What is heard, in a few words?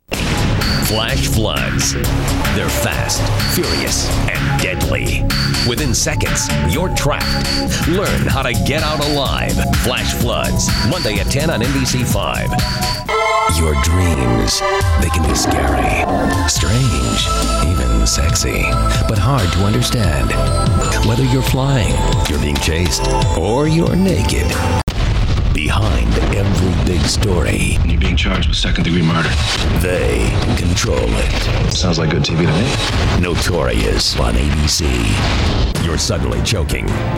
TV promo